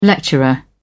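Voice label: "lecturer", British English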